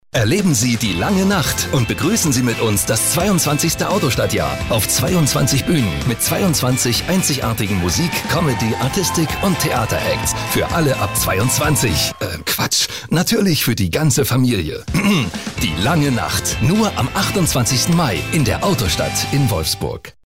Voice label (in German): Werbung Funk, DE; Autostadt